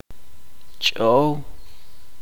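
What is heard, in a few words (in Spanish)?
"ratón", chuj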